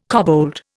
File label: "kobold", Indian